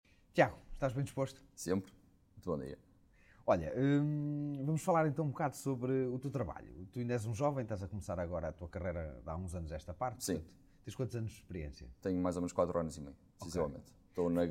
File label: Podcast; Glintt